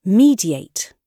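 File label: "mediate", British English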